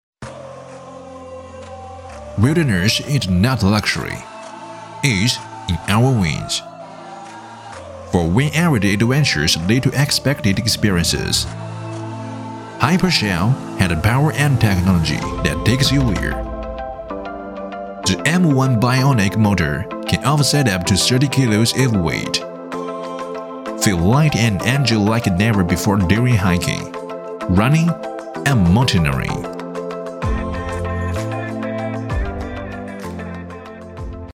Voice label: 男, 英文, 科技广告; HyperShell

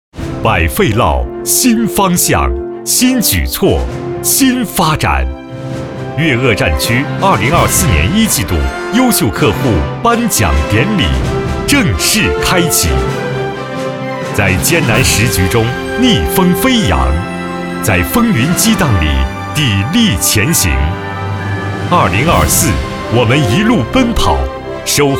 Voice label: 男 颁奖 新方向 新发展, 浑厚大气

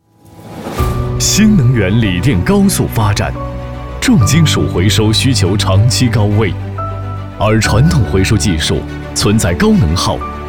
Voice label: 男 宣传片 大气品质

